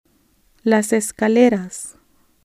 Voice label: stairs, spanish